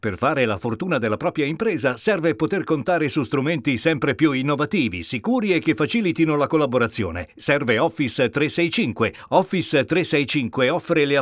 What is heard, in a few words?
spot; office 365